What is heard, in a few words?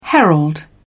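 "herald", British English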